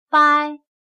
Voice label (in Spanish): "掰", Chino